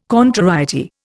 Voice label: "contrariety", Indian

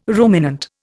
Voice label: "ruminant", Indian

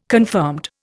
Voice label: "confirmed", Indian

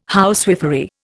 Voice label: "housewifery", Indian

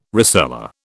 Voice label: "rosella", British

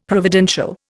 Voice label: "providential", Indian; Dictionary

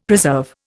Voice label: "preserve", Indian; Dictionary

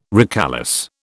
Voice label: "recalesce", British

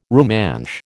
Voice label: "Rumansh", American

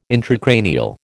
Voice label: "intracranial", American

